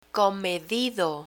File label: "comedido", Spanish; technical spanish verbs; learn spanish